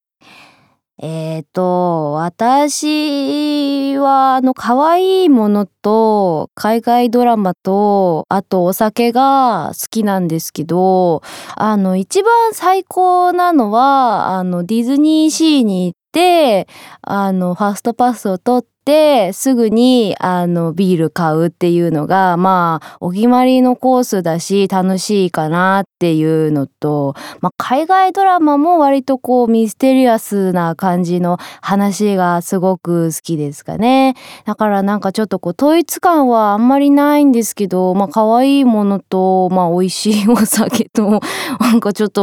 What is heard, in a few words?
freetalk, female voice